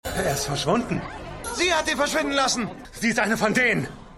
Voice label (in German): Mann Publikum, Stimmproben